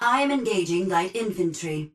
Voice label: titan Vanguard auto Engage Grunt; Titanfall 2

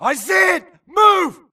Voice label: grunt grenade response; imc; Titanfall 2

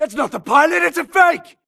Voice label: grunt react Holopilot Decoy; imc; Titanfall 2

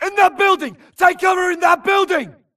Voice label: grunt flee Player Titan Building Call; imc; Titanfall 2

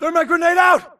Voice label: grunt using grenade thermite; imc; Titanfall 2

